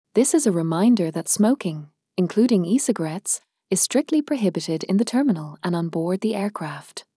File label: airport announce; at the airport